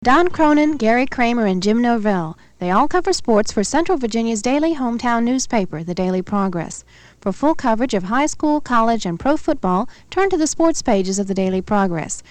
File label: commercial; WJMA; Daily Progress